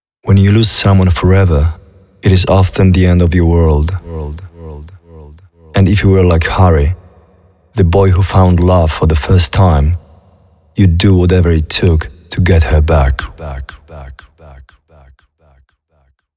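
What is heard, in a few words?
Voices VO English; apocalipsis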